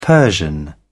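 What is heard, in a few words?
"persian", British English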